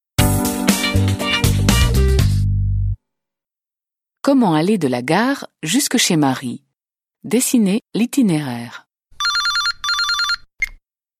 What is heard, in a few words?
French